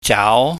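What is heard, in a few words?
"jaau", Cantonese, mid rising tone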